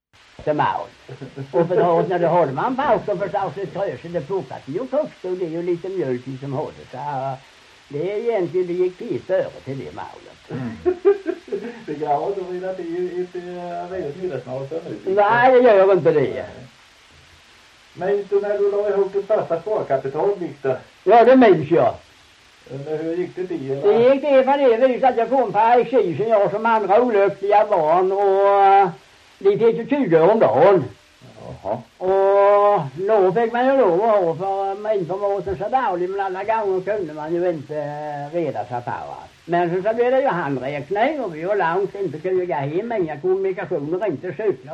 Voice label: Ramdala BLEK, dialekter; Folkminnen; Svenska sagor

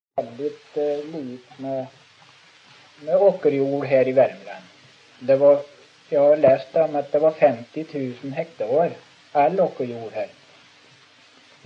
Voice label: Sunne, dialekter; Folkminnen

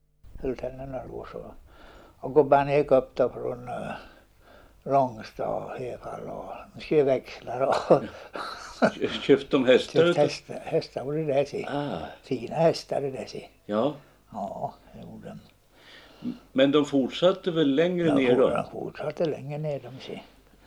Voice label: Hedesunda, dialekter; Folkminnen; Svenska sagor

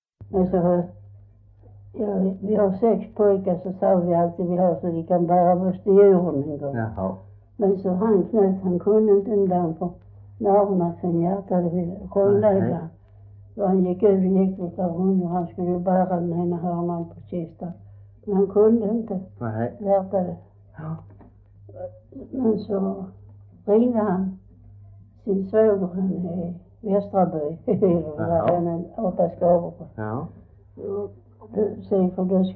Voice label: Svedala SK, dialekter; Folkminnen; Svenska sagor

